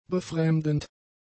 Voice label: "befremdend", German; adjectives